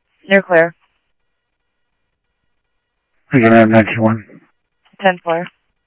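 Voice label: Simulcast police department, scanner audio trunk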